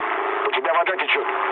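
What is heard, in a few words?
Russian damaged water mood low, speech; War Thunder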